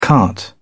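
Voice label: "cart", English, British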